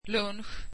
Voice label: swedish lunch

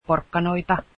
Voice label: carrots, Finnish

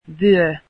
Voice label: belt, Finnish

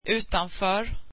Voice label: swedish outside

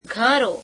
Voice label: gujarati, houses

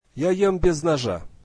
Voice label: russian I eat without a knife

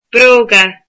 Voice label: "shoes", Irish

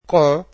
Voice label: portuguese with

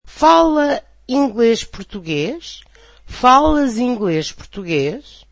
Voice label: portuguese Do you speak Portuguese

